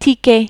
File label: "tiquer", French